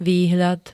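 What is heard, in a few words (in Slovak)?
"výhľad", Slovak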